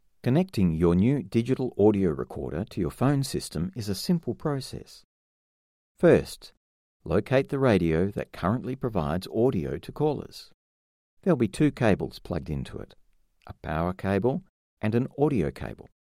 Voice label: Instructional, Explainer Videos, Relatable Teaching, male; Audio Equip